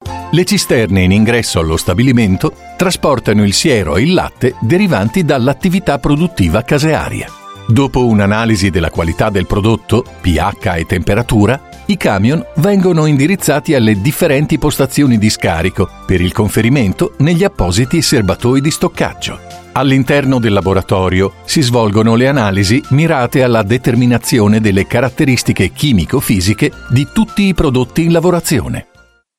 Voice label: Italian